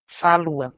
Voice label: "falwa", Proto-Germanic